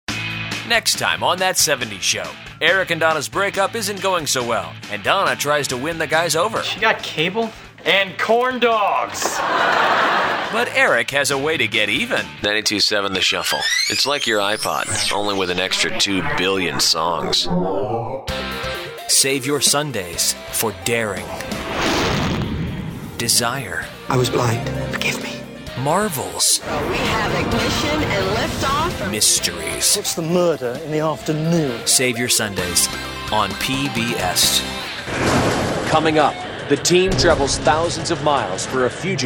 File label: Promo